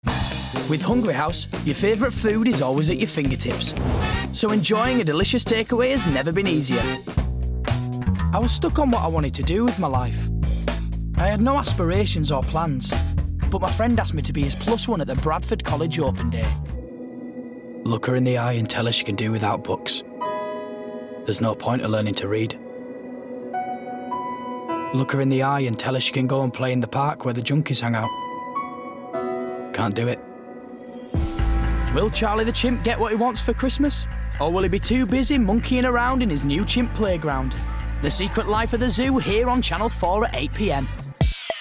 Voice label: Commercial Montage